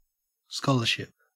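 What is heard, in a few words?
"scholarship", Australian English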